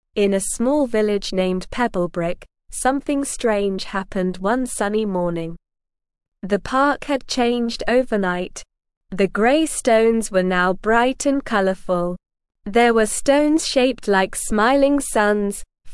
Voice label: ESL Short Stories for Kids Beginner SLOW Reading; The Mystery of the Painted Stones

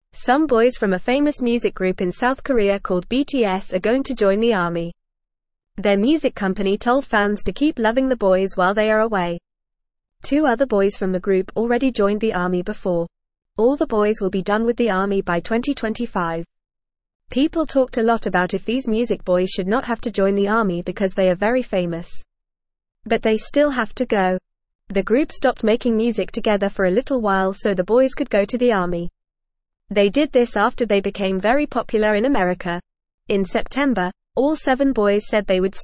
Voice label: Lower Intermediate FAST Reading, English

